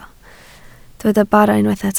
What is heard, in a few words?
"bara", Welsh